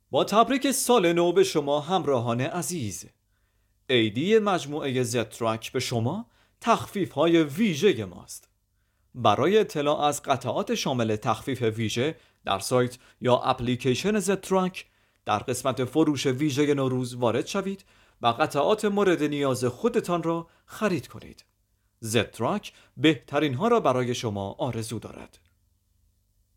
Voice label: narrator